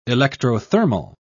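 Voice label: "electrothermal", British English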